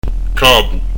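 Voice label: "capu", Corsican; k'abu; Son "appuyé"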